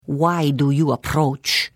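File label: Hello, Khajiit female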